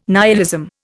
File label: "nihilism", Indian